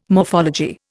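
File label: "morphology", Indian English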